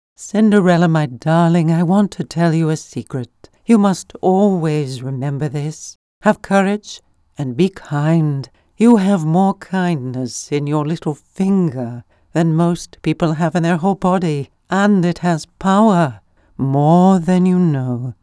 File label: Brit